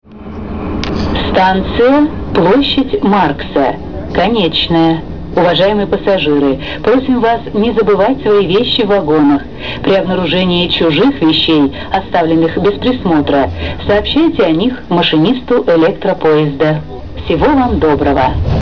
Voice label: female